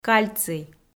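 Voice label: "кальций", Komi, female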